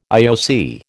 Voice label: "IOC", American